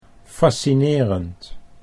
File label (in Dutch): "fascinerend", Dutch